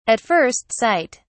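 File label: "at first sight", British English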